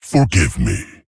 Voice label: Male Tauren Sorry